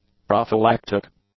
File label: "prophylactic", english; adjectives